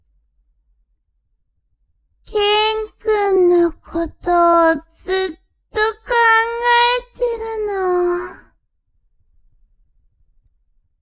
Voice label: woman